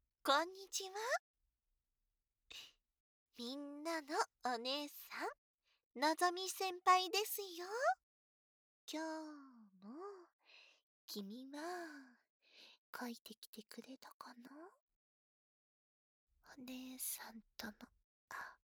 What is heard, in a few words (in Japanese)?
PRボイス